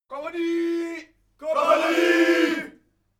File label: military shout german attention